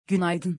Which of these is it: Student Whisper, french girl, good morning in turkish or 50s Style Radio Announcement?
good morning in turkish